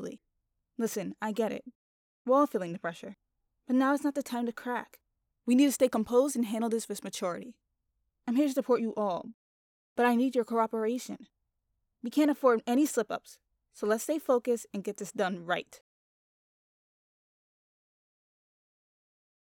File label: Serious and Calm Female Character